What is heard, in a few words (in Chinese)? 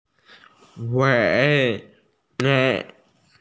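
"wei3 ba5" Chinese, female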